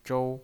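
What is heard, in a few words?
"zhou" Mandarin Chinese pinyin voice, first tone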